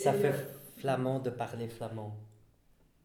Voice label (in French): flamand example